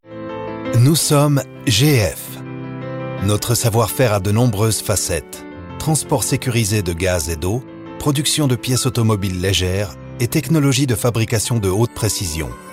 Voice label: commentaire off

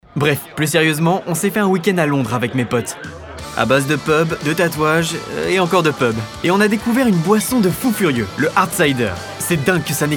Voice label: voix adulte träs jeune ou ado

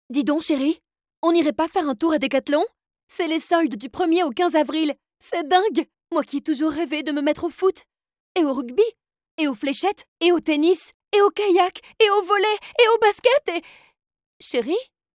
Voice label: RADIO Voix jeune couple; Decathlon

